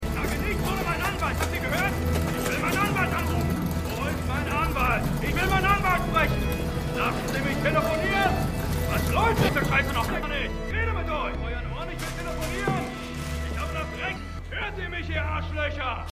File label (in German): Baertiger Mann; The Good Fight